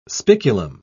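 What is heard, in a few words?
"spiculum", British English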